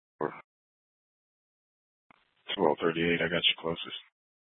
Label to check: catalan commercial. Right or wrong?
Wrong